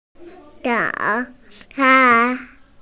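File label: "breakfast", hearing impaired; zao3can1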